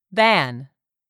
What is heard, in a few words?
"than", english; 3000 words